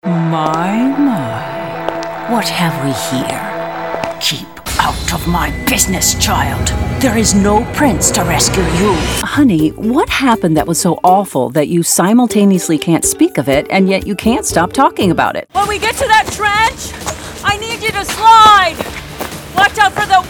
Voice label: Animation